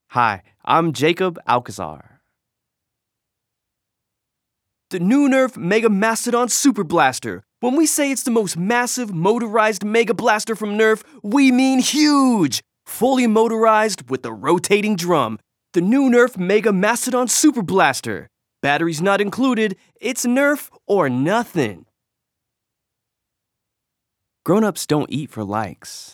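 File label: commercial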